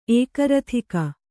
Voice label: "ಏಕರಥಿಕ", kannada